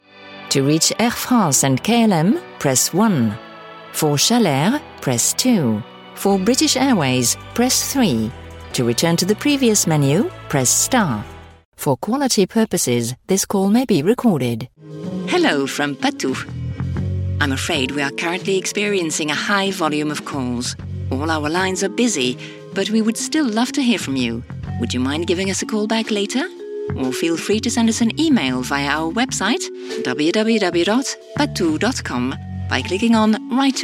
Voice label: Telephony English

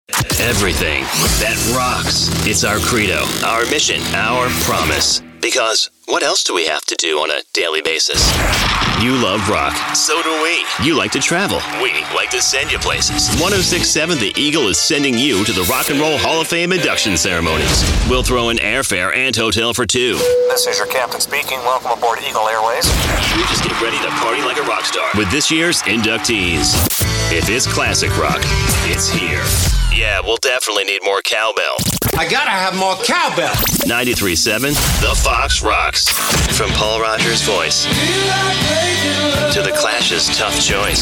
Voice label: Radio Imaging

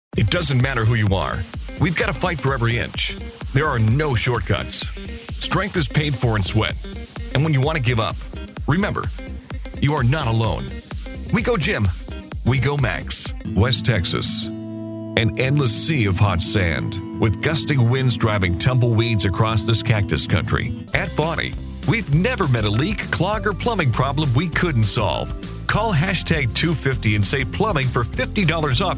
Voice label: Commercial

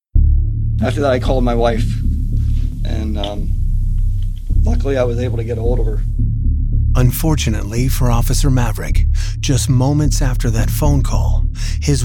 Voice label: Narration

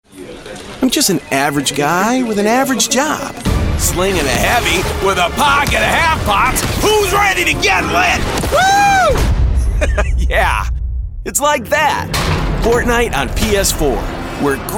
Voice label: Commercial

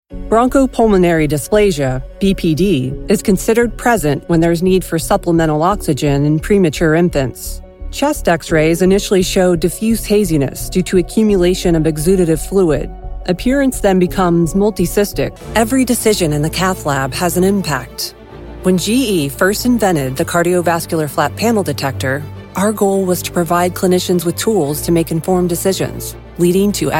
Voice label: Medical Narration

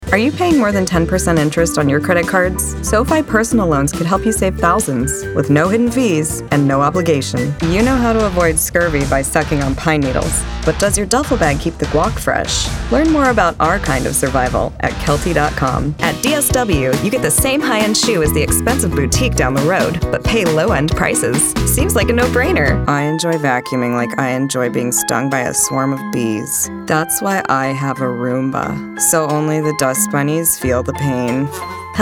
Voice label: Commerical

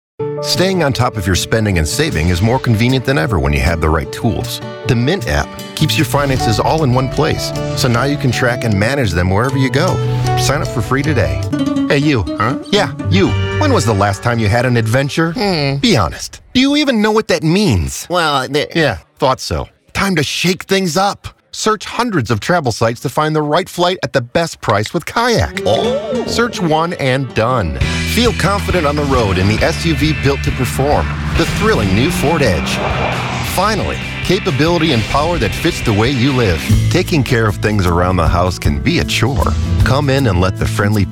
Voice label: Commerical

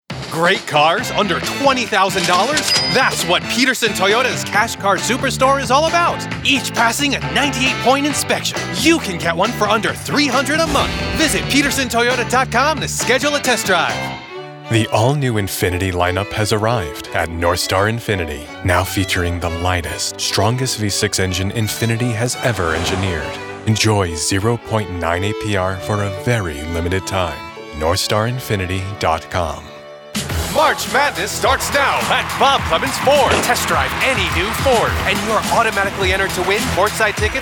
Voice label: Automotive American Man

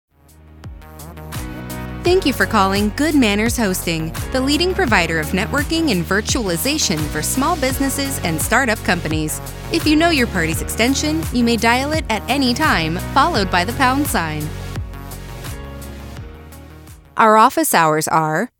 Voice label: IVR Message On Hold

